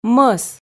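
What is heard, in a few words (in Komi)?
"мӧс", Komi, female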